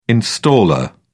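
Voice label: "installer", British English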